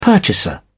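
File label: "purchaser", British English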